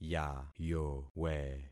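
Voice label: "yayowe", concatenated syllables; Affixing experiment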